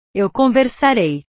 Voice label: "eu conversarei", portugues; portugues/verbos

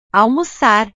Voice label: "almocar", Portuguese

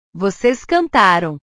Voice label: "voces cantaram", portugues; portugues/verbos

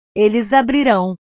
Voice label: "eles abrirao", portugues; verbos

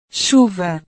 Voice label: "chuva", portugues

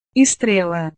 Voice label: "estrela", portugues

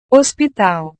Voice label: "hospital", portugues

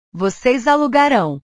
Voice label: "voces alugarao", portugues; Ustedes alquilarán

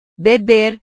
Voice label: "beber", portugues

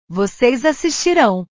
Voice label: "voces assistirao", portugues; Ustedes verán

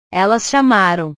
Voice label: "elas chamaram", portugues; portugues/verbos

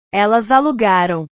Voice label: "elas alugaram", portugues

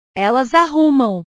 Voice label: "elas arrumam", portugues

